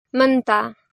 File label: "Manta", Gondi; Is